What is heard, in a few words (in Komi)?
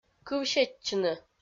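"кывсетчыны", Komi, female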